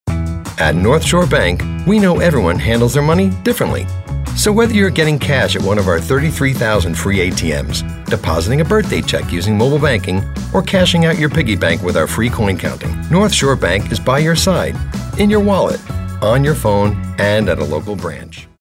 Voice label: storyteller